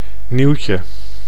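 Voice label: "nieuwtje", Dutch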